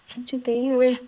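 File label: voice acting, es, female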